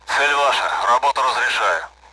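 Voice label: Speech, Russian, AWACS; engage target; DCS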